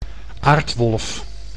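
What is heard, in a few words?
"aardwolf", Dutch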